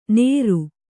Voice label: "ನೇರು", Kannada